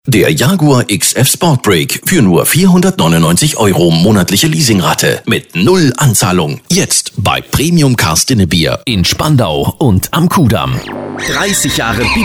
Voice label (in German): Sprachproben Werbung